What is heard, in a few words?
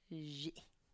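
"zhi", Tlacochahuaya Zapotec; nose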